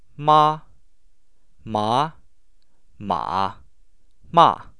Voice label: chinese ma, Samples of the 4 tones of mandarin chinese